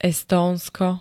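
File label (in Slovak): "Estónsko", Slovak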